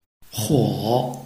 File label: "huo3", Chinese